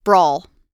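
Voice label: "brawl", American English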